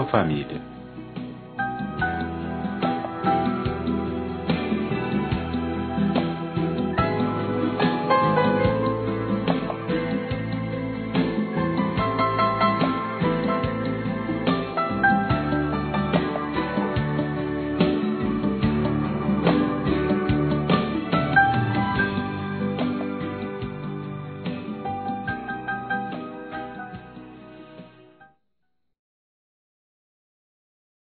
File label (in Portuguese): Agradecimento Mensagem Ano Novo Masculino, Telemensagens; Natal e Ano novo